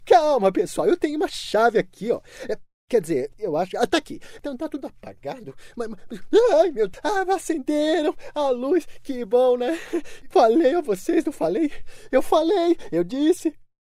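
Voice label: Brazilian Portuguese, male, character; Voice Over for Animation and Cartoon Characters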